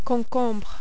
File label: "concombre", French